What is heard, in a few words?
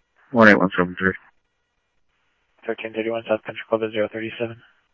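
Mesa Simulcast Police Department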